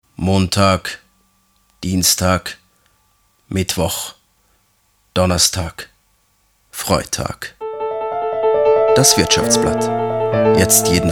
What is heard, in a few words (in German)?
Hörfunk; Freitag